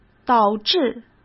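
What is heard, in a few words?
"导致", Mandarin Chinese; hsk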